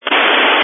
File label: Family Radio Service (FRS)